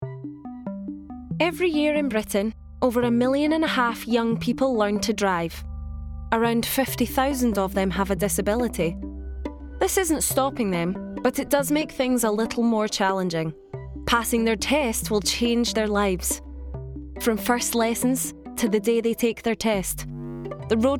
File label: female English Scottish accent documentary compilation